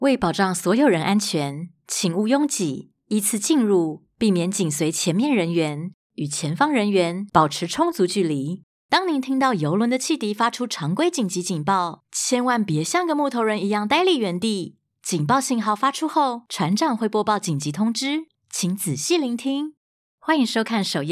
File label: Narration